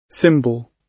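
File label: "symbol", British English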